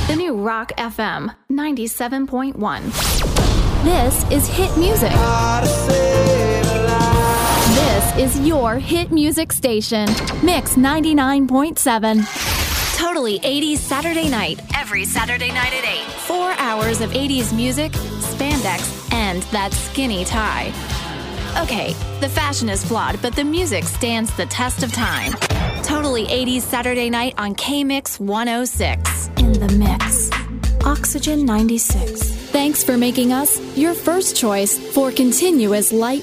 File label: radio